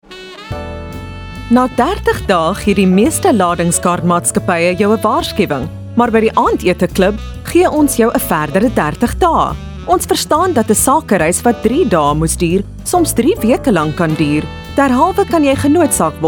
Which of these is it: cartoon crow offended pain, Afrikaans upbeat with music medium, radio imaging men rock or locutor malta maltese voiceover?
Afrikaans upbeat with music medium